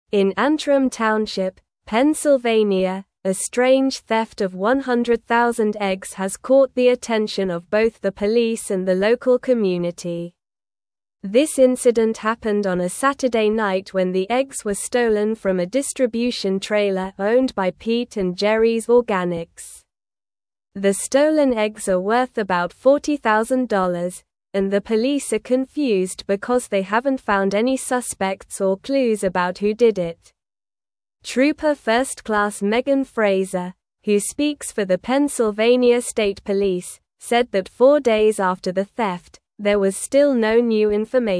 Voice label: English Upper Intermediate SLOW Reading; English Newsroom; Massive Egg Theft Leaves Pennsylvania Authorities Searching for Clues